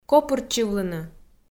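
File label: "копыртчывлыны", Komi, female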